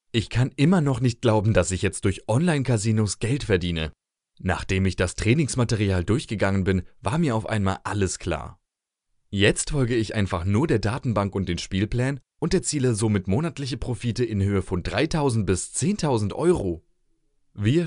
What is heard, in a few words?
de male; lektoring